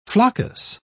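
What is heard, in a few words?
"floccus", British English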